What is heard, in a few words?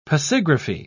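"pasigraphy", British English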